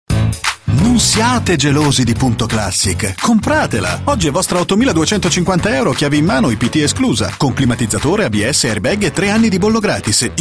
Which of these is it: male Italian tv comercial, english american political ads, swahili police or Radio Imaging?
male Italian tv comercial